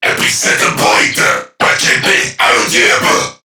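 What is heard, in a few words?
Player Spotted Crewmen, English; Dialog; Barks; Battle; Warframe; CorpusCrewmen; CrewmanA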